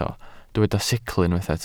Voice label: "sicl", welsh